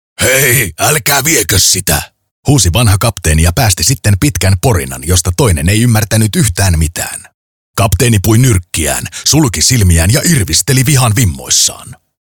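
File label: Finnish M Character, male; voice over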